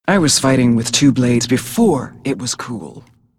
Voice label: hero female demon hunter pissed